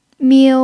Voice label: "miu1", Mandarin pinyin

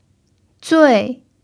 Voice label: "zui4", Mandarin pinyin

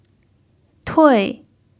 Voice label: "tui4", pinyin

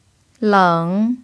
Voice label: "leng3", Mandarin pinyin

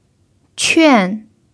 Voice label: "quan4", mandarin pinyin

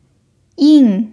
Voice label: "yin4", Mandarin pinyin

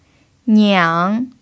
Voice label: "niang3", Mandarin pinyin

